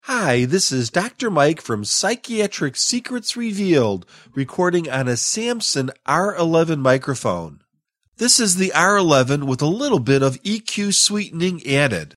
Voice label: Samson R11